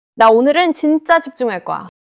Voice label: neutral, monologue